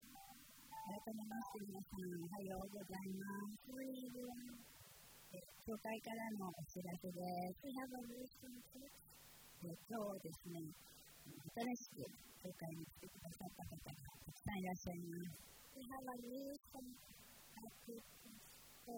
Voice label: announcement; sunday message 2023